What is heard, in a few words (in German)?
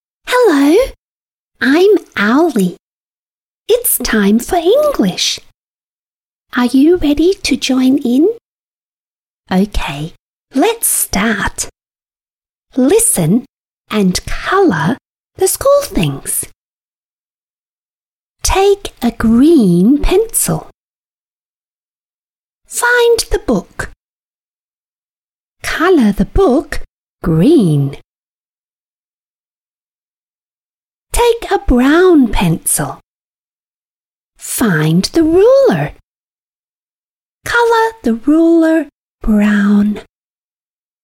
englisch hoeruebung; mini-spatzenpost